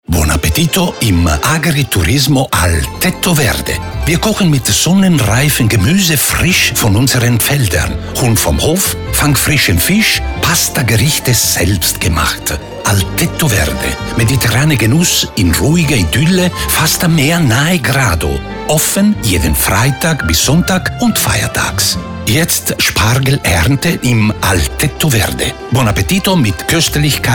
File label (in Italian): Spot; Al Tetto Verde